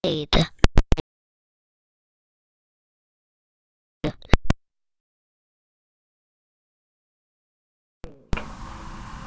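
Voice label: "lead", spoken word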